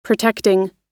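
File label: "protecting", US English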